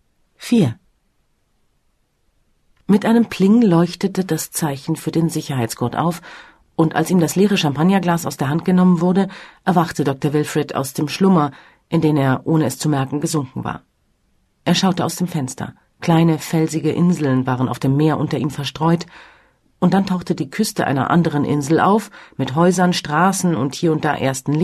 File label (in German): hoerprobe, Bayerische Blindenhörbücherei